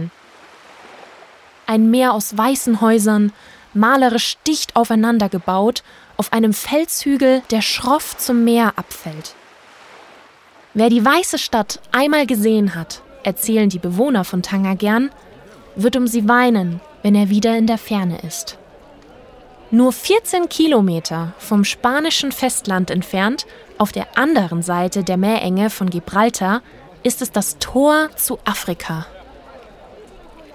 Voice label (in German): Marokko Doku